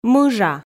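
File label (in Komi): "мыжа", Komi, female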